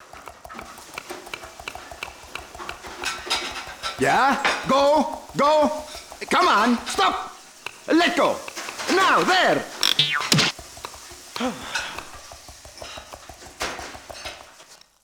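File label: intro British; arthur and the revenge of maltazard; Wii; Intro Uk L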